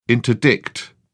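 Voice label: "interdict", English, British English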